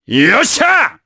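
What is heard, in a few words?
Vox Happy, Japanese; Baudouin; kingsraid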